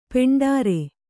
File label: "ಪಾಂಡುರ", Kannada